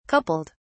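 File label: "coupled", British English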